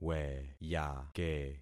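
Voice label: "weyake", concatenated syllables; Affixing experiment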